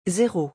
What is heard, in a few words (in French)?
"zéro", French